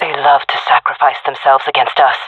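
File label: round start, female voice; player vo; Counter Strike Model Pack; CS:GO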